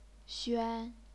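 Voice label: "xuan", chinese pinyin, first tone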